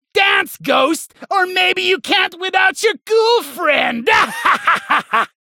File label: Halloween Slap Ghost Spacerig